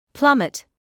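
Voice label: "plummet", British English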